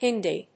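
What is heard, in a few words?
"Hindi", English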